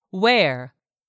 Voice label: "wear", English; 3000 words

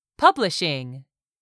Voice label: "publishing", English; 3000 words

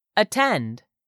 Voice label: "attend", english; 3000 words

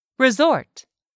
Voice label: "RESORT", english; 3000 words

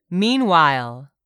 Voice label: "meanwhile", English; 3000 words

